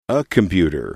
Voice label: "a computer", English